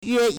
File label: "yue1", Chinese